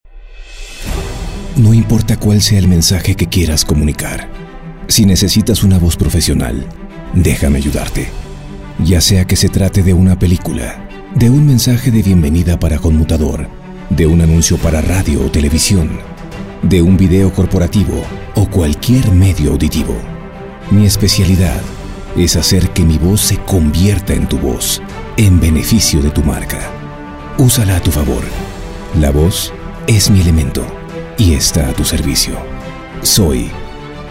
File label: trailer